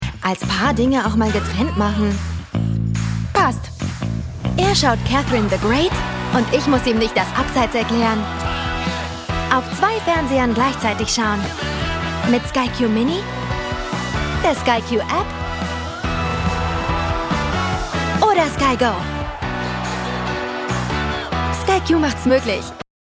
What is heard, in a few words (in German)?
TV Spot; SKY Q